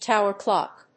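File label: "tower-clock", English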